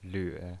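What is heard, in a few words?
"lve", pinyin voice, Mandarin Chinese, third tone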